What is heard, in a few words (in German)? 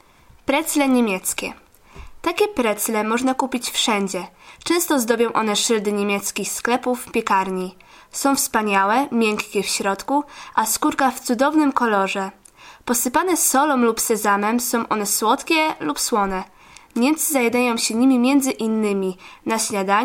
polnisch; P Brezel